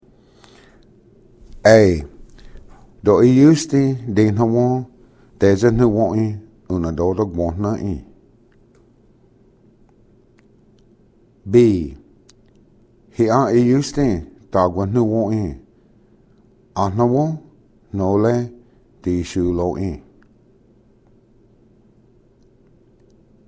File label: kind clothes dialog, cherokee language